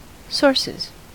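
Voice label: "sources", US English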